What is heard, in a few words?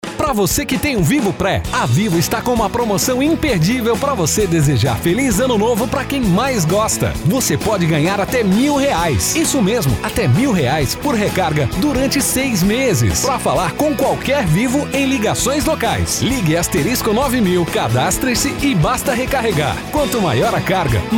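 Portuguese, Werbung, Freundlich; Fremdtake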